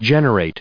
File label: "genera", us